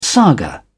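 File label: "saga", British English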